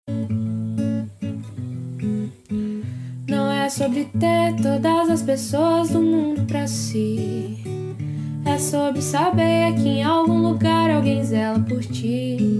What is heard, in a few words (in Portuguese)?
ANIV PADRINHO MASC, Telemensagem